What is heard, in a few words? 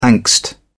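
"angst", British English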